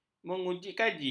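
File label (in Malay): "mengujikaji", Malay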